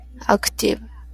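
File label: "active", Japanese, female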